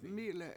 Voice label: mI7le7 everything, Washo

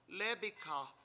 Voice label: lEEbikha I cooked, Washo

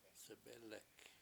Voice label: "sebElek", washo; blow to kindle fire

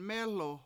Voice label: mEElu old man, Washo

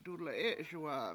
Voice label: he claws grasps, Washo; dule7ESuwa7a

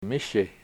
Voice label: "mishi", washo; two people

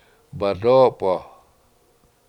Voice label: "badOpo7", Washo; Corn Lily

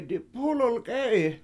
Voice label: That Is My Car, Washo; dipUUlul k7E7i

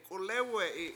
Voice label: k7ulEwe7i it lies there dead, Washo

